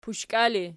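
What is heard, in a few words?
"pushka" ergative, Archi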